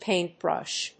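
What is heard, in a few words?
"paintbrush", English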